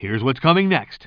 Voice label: commentator